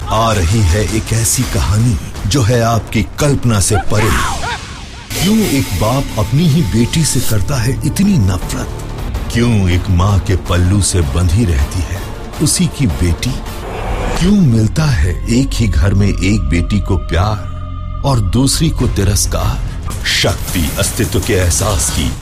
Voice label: promo hindi